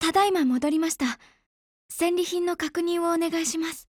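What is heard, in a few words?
OPERATION OVER, Japanese; ColtDefender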